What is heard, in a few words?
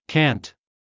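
"cant", American English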